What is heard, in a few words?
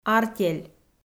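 "артель", Komi-Zyrian, female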